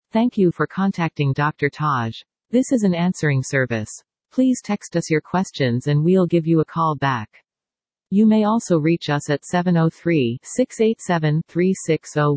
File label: voice mail